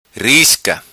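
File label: "Risca", patua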